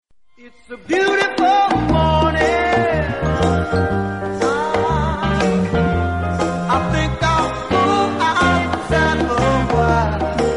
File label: deep american; mcdonalds